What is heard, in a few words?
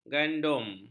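"gandum", Malay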